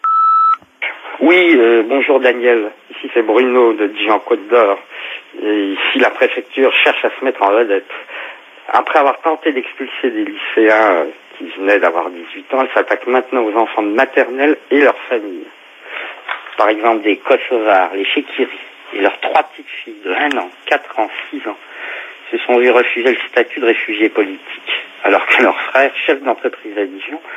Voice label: repondeur; Expulsion enfants de Sans papiers

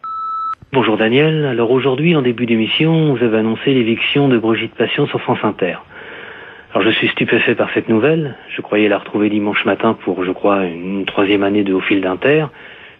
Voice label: repondeur; Licenciement Brigitte Patient

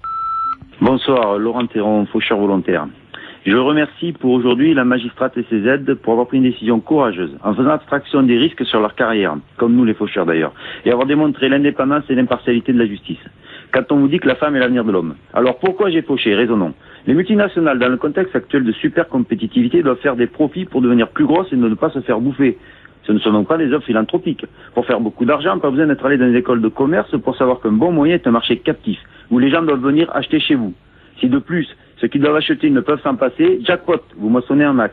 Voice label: repondeur; Faucheurs volontaires

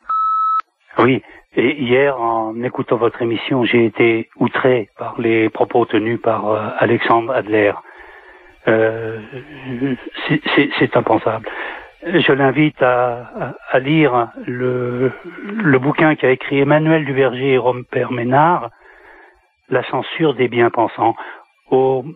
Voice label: répondeur; Derapage Alexandre Adler

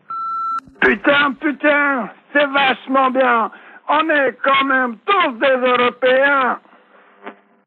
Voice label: repondeur; Tous europeens

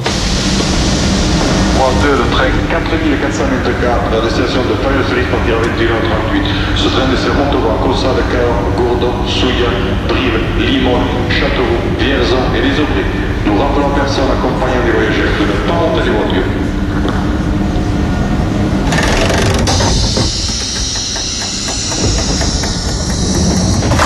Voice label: Train de nuit annonce depart

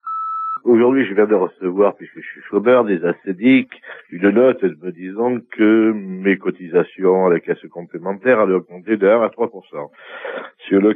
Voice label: Chomage repondeur

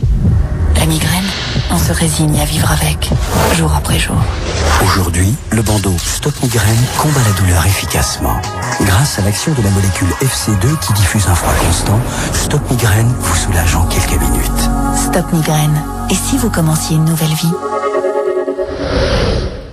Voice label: Pub pour medicament

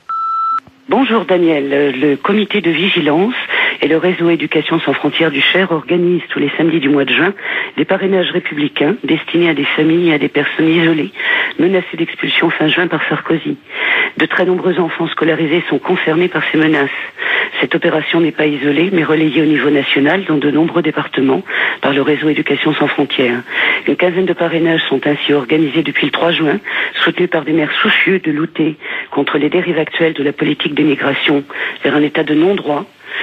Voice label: repondeur; Parrainage de Sans Papiers

